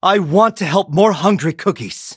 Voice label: levelup en; Cookie Run

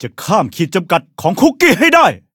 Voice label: level up, th; Cookie Run

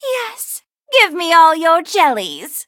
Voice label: levelup, en; Cookie Run

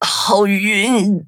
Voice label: hit, zh-hant; cookie run

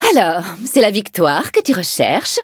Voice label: level up, fr

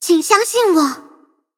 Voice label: loading, zh-hant; Cookie Run

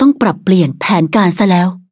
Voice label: defeat, th; cookie run

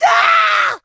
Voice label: hit, en; Cookie Run

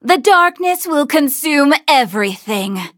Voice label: levelup, en; Cookie Run

